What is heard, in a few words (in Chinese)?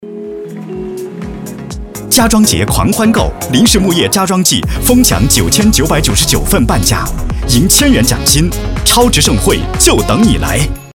时尚家居家具家装促销广告; 林氏木业